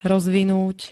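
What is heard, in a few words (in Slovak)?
"rozvinúť", Slovak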